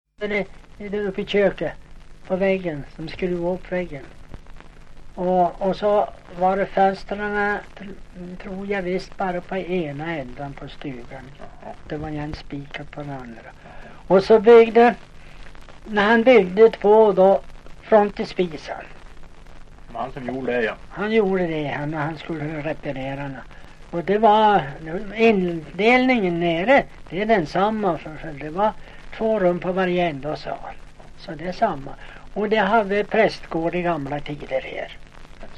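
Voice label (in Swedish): Näs Jämtland, dialekter, Svenska sagor; Folkminnen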